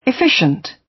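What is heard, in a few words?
"efficient" British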